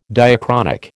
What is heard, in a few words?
"diachronic", American